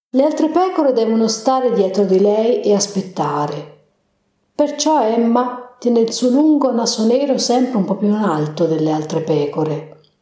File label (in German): italienisch